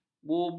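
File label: "bulbul", Malay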